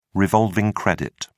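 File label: "revolving credit", British English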